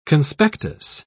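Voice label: "conspectus", English, British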